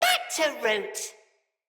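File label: Death Corrupted Sprouts, en; Dialog; Battle Barks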